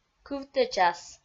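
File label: "кывтэчас", Komi, female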